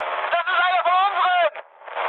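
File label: German player friendly fire mood high, speech; War Thunder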